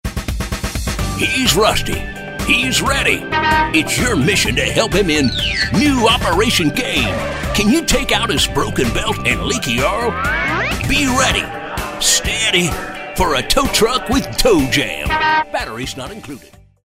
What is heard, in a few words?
cartoon